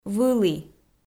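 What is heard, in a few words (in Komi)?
"вылі", Komi, female; audio dict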